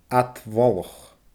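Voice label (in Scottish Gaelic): "ad mholach", Scottish Gaelic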